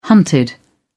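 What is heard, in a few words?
"hunted", English, British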